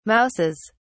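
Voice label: "mouses", British English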